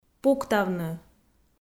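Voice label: "пуктавны", Komi, female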